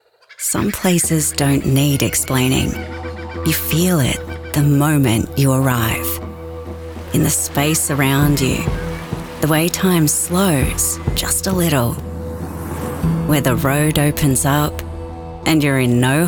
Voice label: VO Commercial AU; Tourism Aus

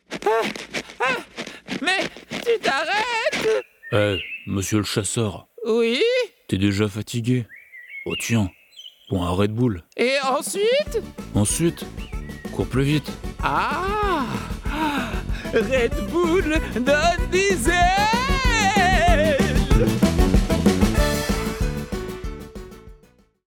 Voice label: french commercial character comedy

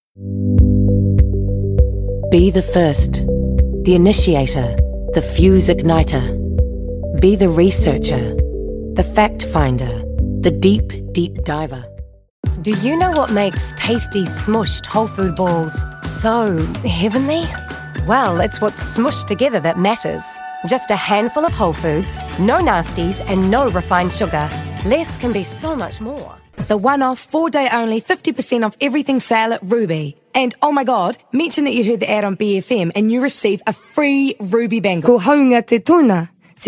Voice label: Voice Actor, en-US; Big Mouth Voices

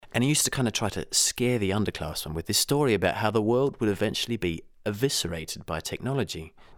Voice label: Accent British

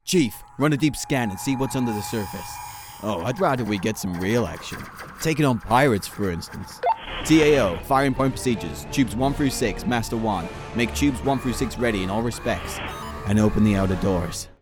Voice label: Officer american

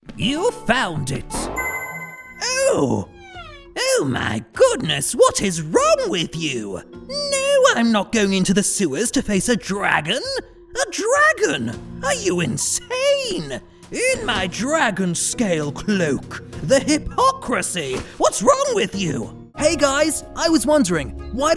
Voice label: Animation

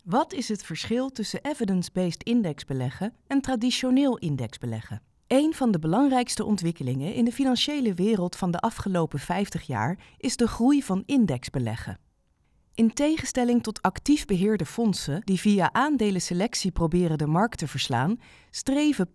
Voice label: Financial explainer

